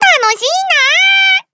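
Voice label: story, Japanese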